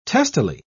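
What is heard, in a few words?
"testily", British English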